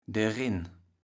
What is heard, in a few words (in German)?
"derin", Platt